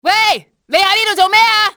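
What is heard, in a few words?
what are you doing, cantonese, female; XBOX; enemy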